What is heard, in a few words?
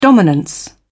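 "dominance", British English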